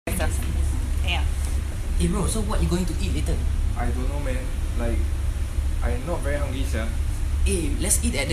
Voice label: Singlish; asia